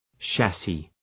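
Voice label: "chassis", English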